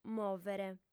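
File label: "mòvere", female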